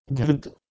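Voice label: "ghrdh", Proto-Indo-European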